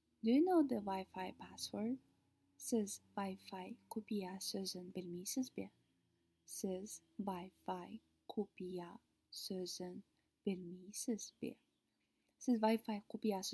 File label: Do you know the WI FI password, kazakh